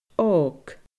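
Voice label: "ògan", Scottish Gaelic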